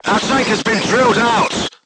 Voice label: voice message tank hit, vietnamese; crew tanks

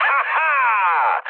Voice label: trick, speech cze, mood low; War Thunder

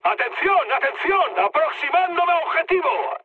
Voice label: near waypoint, mood high, speech; War Thunder

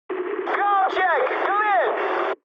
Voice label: eng chat, speech eng

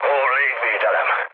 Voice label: damaged aa, mood high, speech hun; War Thunder

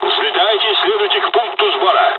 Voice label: takeoff, dialogs russian; midway jpn; War Thunder

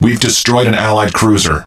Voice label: Speech Destroy Ally Cruiser, EN; World Of Warships

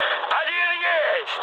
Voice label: player killed enemy, mood med, speech ru

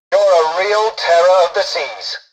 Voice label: tutorial dialogue, english; War Thunder

